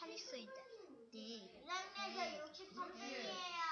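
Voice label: "jig", spoken word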